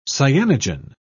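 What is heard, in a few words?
"cyanogen", British English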